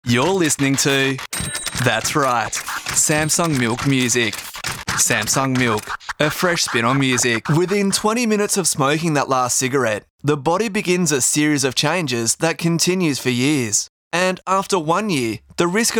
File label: AU English Voice, English Australian Male